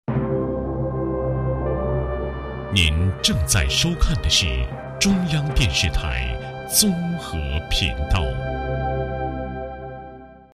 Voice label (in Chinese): 男25, 国语男声配音